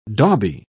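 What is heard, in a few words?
"dauby", English, British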